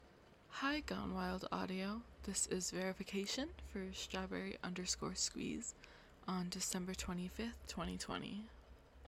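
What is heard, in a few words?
Female Verification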